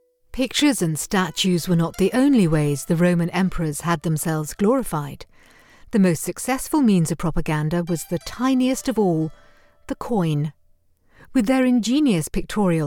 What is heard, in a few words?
Museum VO; Naples